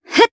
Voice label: Vox Casting, jp; Yanne; kingsraid

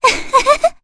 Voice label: Vox Happy, jp; Cassandra; kingsraid

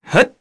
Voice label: Jump, kr; voices; heroes; Neraxis; kingsraid

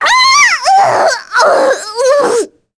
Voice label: Dead, kr, voices; heroes; Sonia; kings raid

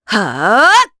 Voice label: Casting, jp; Hilda; kingsraid

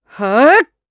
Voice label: Vox Casting jp; voices; heroes; Glenwys; kingsraid

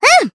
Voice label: Vox, jp; Miruru; kingsraid

